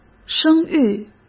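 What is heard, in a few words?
"生育", Mandarin Chinese; chinese flashcards; hsk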